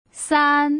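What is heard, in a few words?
"san", Mandarin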